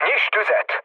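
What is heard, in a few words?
attack position, mood low, Hungarian; War Thunder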